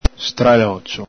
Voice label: "stralocio", Veneto

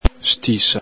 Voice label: "stisa", Veneto

